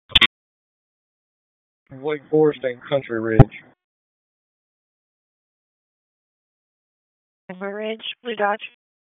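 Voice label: Police District Dispatch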